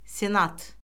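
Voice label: "senat", Azerbaijani; Orfoqrafiya Lüğəti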